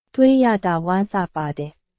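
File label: Burmese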